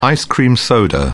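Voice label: "ice-cream soda", British English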